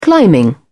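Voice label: "climbing", British English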